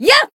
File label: attack, jp